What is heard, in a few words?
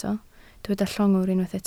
"llongwr", Welsh